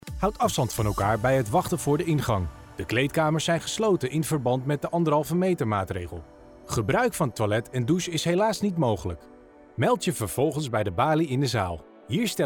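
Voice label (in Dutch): instructie video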